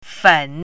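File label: "fen3", Chinese pinyin, third tone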